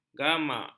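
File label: "gamak", Malay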